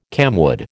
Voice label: "camwood", American